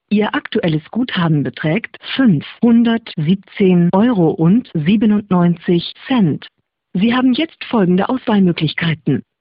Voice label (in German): guthabenfake prepaid ansage german